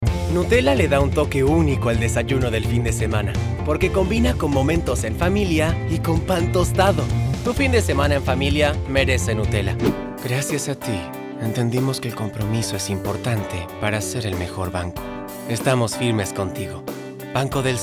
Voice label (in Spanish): Neutro